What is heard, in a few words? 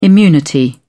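"immunity", British English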